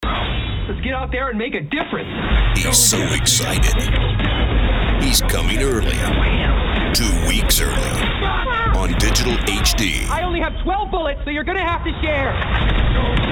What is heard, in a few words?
Commercial